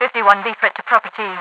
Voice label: threat to property, radio voice; Half Life 2